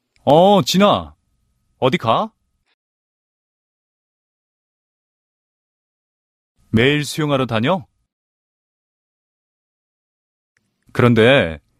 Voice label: english